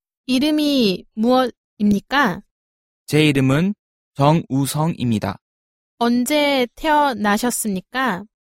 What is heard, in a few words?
dialog